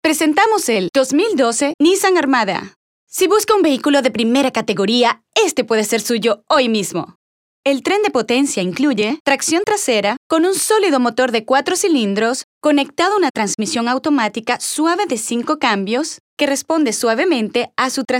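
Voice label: Spanish, ad, voice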